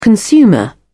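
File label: "consumer", British English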